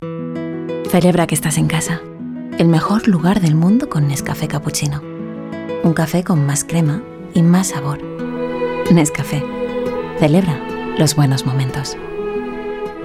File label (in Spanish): español female vo